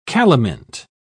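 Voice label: "calamint", English, British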